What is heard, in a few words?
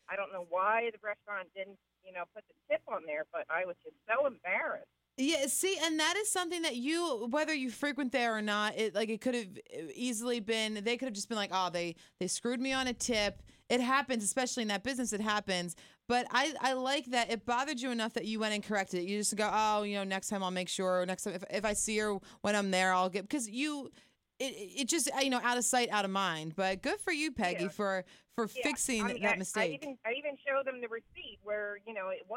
phone call